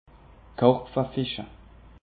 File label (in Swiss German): "karpfefische", alsace; peche